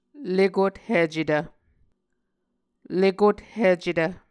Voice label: "lekothicetv", Muscogee